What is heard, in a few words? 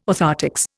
"orthoptics", Indian